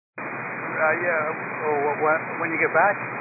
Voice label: 122 725MHz, scanner radio